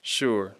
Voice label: "sure", English